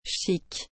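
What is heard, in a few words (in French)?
"chic", French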